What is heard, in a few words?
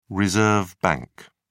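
"reserve bank", British English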